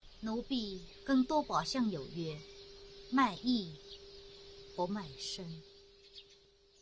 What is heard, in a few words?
drama